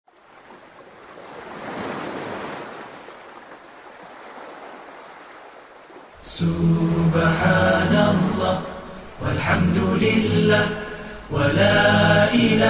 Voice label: Narration